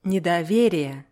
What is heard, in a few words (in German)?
"nedowerije", russische; НЕ-Wörter mit Synonymen